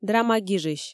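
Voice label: "драма гижысь", Komi, female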